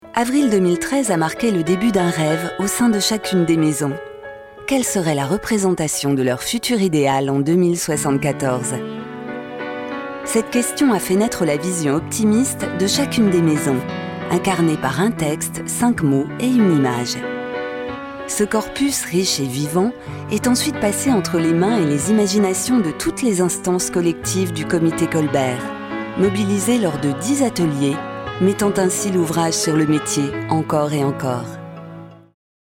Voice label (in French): institutionnel chaleureuse elegante; COMITE COLBERT